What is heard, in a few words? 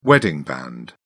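"wedding band", British English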